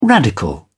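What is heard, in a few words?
"radical", British English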